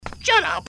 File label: Ai Dude Scold; Dude Theft War; Android